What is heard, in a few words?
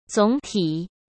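"总体", Mandarin Chinese; chinese-flashcards; hsk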